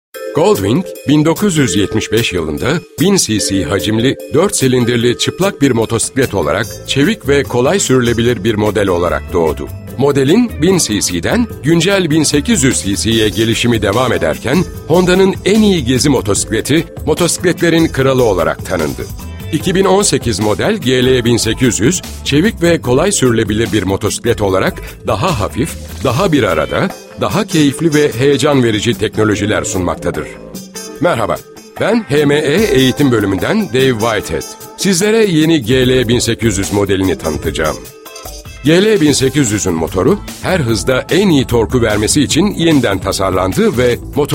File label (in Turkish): tanitim